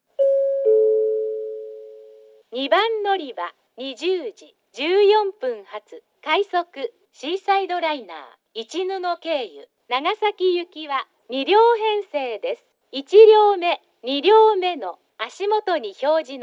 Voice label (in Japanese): 2番のりば案内放送（快速シーサイドライナー 長崎行き）; ハウステンボス